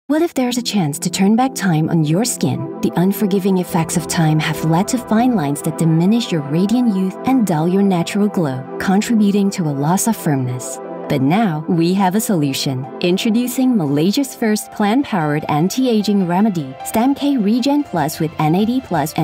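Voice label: ENGLISH - COMMERCIAL Professional, Trustworthy, Calm, Positive; ENGLISH - COMMERCIAL (Beaute Clinic) Professional, Trustworthy, Calm, Positive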